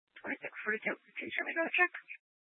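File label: Sheriff Dispatch, scanner audio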